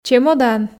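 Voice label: "чемодан", Komi, female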